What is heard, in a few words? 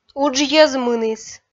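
"уджйӧз мыніс", Komi, female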